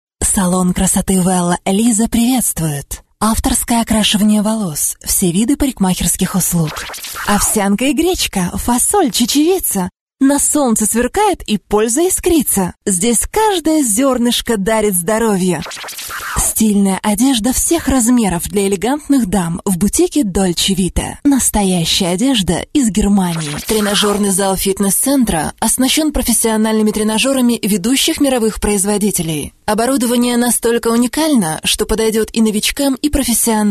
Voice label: ru femme